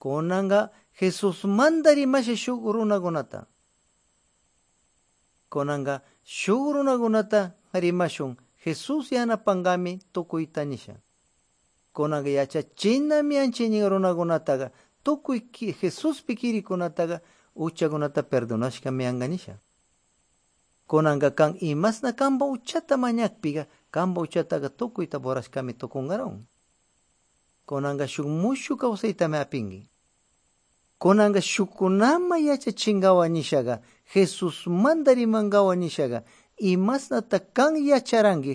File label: good news quichua de pastaza